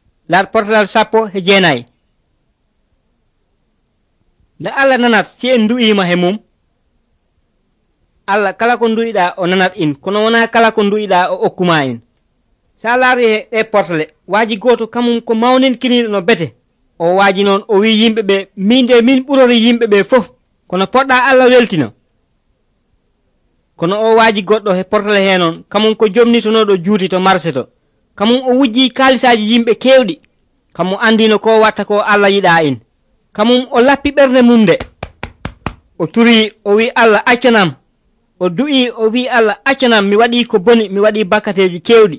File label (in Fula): pulaar fulacunda, drama; senegal; pulaar bible society of senegal nt drama